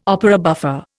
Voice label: "opera buffa", Indian